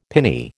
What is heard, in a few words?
"pinny", American